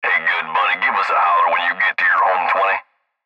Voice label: Cb Trucker Radio; Cb Radio Male Hey Good Buddy Give Us A Holler When You Get To Your Home 20; Cb Trucker Radio Voice Clip Interior Cab Young Male Hey Good; Blastwave